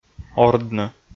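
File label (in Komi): "ордны", Komi, male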